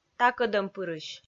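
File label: "такӧдӧмпырысь", Komi, female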